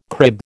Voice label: "crib", American

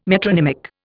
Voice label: "metronymic", Indian

